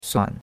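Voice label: "suan3", Mandarin Chinese; Cours de Chinois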